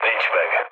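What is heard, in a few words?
Hungarian bomb failure mood low, speech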